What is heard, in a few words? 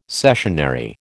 "cessionary", American